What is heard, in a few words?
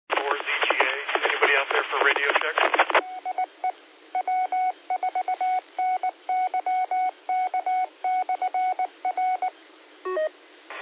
qso rec simplex logic